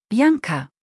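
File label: "Bianca", German; maedchennamen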